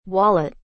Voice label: "wallet", English